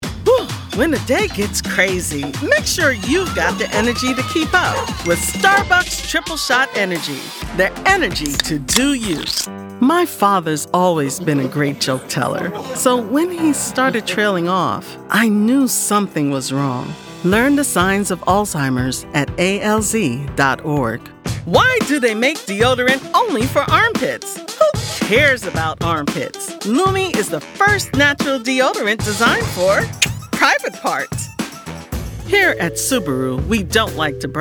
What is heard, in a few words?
Commercial